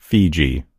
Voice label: "fiji", en US; gcompris; geography